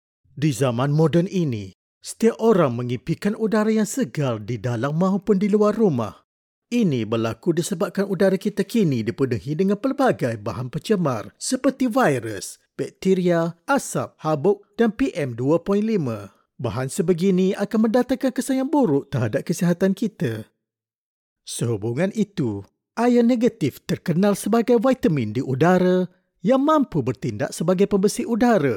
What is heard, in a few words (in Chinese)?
马来西亚语男, 外语, 小语种